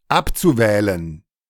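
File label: German "abzuwählen"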